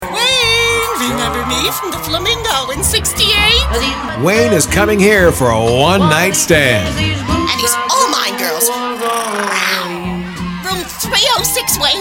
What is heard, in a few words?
Older Mature Humorous